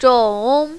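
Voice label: "zhong" third tone, mandarin, female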